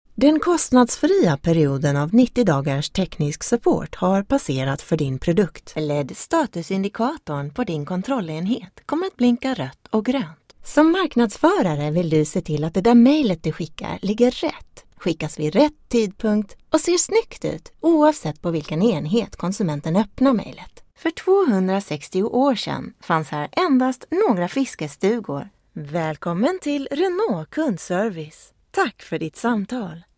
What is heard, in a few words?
Corporate IVR Elearning